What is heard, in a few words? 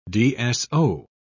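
"dso", British English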